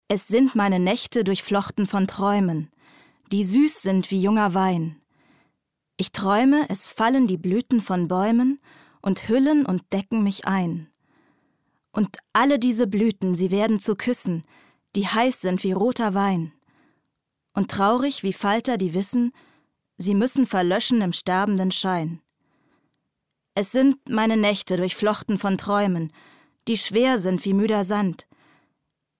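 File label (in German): Erzählung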